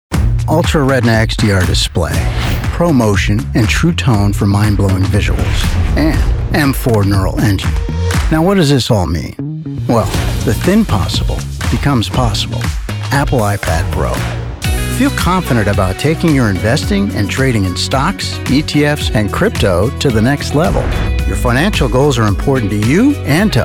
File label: Commercial